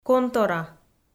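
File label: "контора" Komi, female; audio dict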